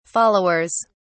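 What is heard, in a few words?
"followers", British English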